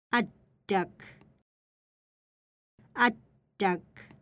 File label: Inuit; Phonetics